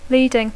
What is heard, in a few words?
"leading", English; adjectiu; líder; principal; influent